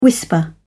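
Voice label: "whisper", British English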